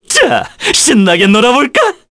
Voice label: Skill, kr, voices; heroes; Mitra; kings raid